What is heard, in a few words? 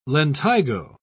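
"lentigo", British English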